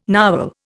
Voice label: "narwhal", Indian

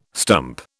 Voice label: "stump", British

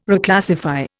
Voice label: "Reclassify", Indian

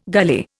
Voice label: "gully", Indian

